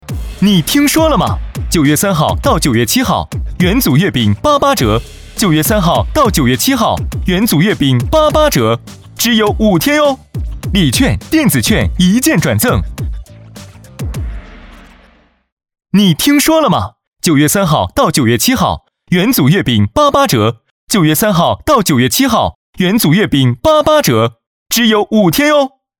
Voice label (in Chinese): 男; 中秋节样音; 元祖雪月饼